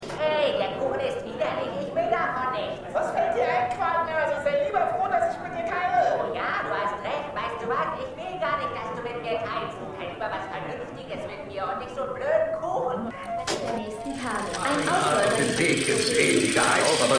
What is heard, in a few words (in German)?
TV Stimmen; Der Appartement Schreck